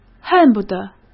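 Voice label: "恨不得", Mandarin Chinese; hsk; chinese-flashcards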